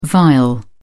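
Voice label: "viol", English, British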